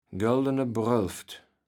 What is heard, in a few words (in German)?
"Göldene Brölft", Platt